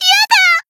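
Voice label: damaged, Japanese; melody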